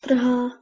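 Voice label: "trh2a", Proto-Indo-European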